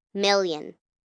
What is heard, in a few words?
Numbers Million, 1 child, girl counting; Talking Sound Effects